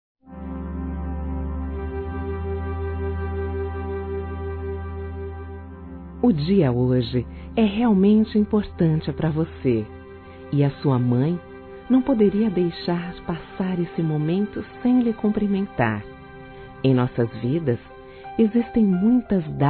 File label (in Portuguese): Romantica Aniversário Casamento (Mãe Envia)